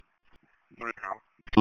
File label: Police Sheriff Dispatch